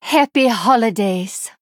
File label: Female Draenei, HAPPY HOLIDAYS